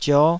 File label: "zio5", Taiwanese syllable